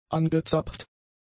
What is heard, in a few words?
"angezapft", German; adjectives